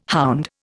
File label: "hound", Indian